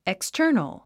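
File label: "external", English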